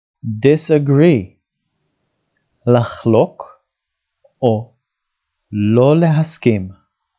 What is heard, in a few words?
"disagree" – "לחלוק לא להסכים", dictionary